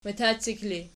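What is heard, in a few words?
"matacik" ergative, Archi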